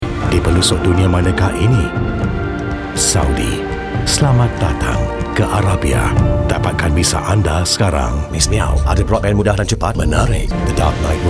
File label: Malay VO Commercials